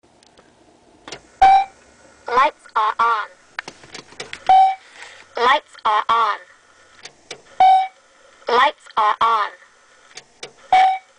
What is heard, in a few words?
voice talking lady lights; 280zx s130